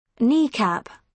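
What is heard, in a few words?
"kneecap", British English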